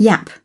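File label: "x ray", British English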